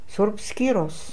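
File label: "Surp Skiros", pronunciations; Fourth Way; Beelzebub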